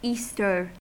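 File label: "easter", US English; pronunciation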